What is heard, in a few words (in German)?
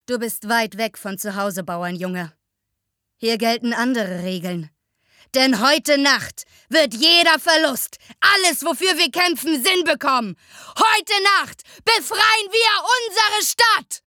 Soldatin Rollentext